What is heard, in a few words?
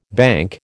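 "banc", American English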